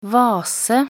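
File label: "vase", Norwegian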